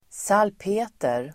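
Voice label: "salpeter", Swedish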